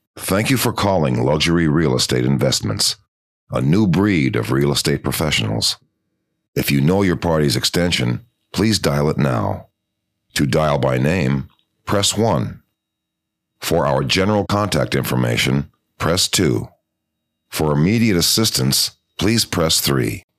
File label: IVR Corporate